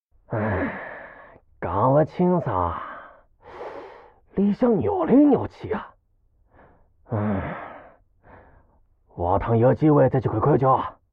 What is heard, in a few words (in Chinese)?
偷听对话 癞子